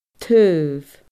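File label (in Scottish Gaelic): "taobh", Scottish Gaelic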